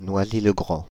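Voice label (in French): "Noisy-le-Grand", French, Paris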